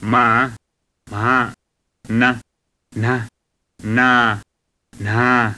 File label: newari; [maa m̤aa na n̤a naa n̤aa]